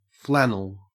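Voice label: "flannel", Australian English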